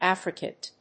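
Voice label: "affricate", English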